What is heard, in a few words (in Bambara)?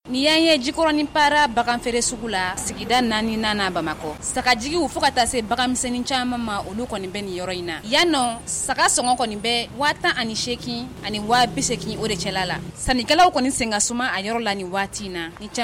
magazine bamanankan